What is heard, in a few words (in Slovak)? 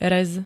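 "rez", Slovak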